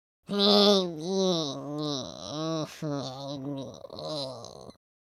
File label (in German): angry, German